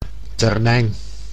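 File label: "termijn", Dutch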